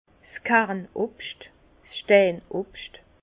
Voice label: "kernobscht", Bas Rhin; fruits à noyaux; vin

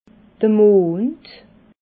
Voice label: "mond", alsace

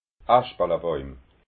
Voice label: "aschpalabàuim", alsace

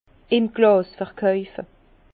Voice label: "glasverkaufe", Bas Rhin; vin; vin au verre

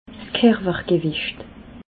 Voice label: "kerwergwicht", alsace

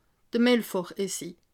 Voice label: "melfor", alsace

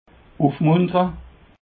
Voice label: "ufmuntere", Alsace

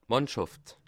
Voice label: "mannschaft", alsace

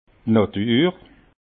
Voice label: "natur", alsace

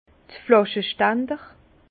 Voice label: "flaschestander", Bas Rhin; vin; chevalet à bouteilles

